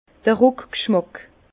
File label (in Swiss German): "ruckgschmack", Bas Rhin; vin; rétro olfaction